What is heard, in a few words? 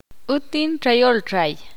ixil; mart n pescador